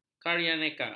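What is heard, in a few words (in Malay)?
"karyaneka", Malay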